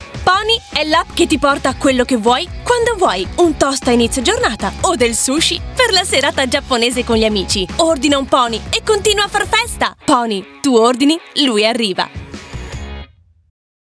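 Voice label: Italian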